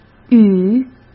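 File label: "雨", Mandarin Chinese; chinese-flashcards; hsk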